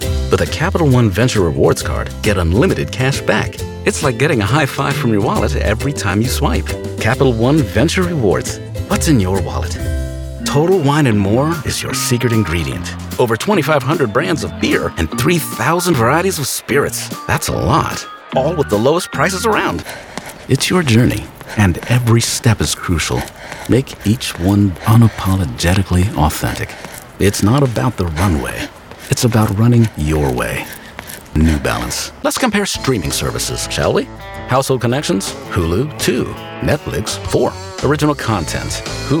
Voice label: english american commercial